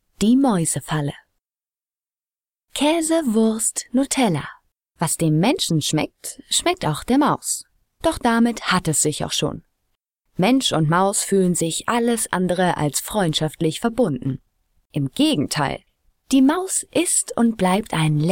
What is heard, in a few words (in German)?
sachtext maeusefalle